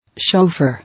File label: "Chauffeur", English